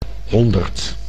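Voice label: "honderd", Dutch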